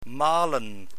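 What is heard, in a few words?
"malen", Dutch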